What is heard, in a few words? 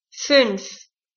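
"funf", German, five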